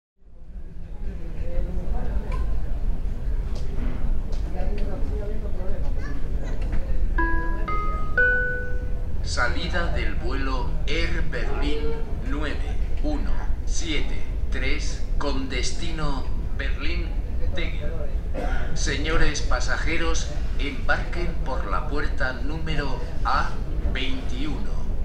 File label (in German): Ansage nach Berlin